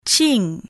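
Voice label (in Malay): "cing", Malay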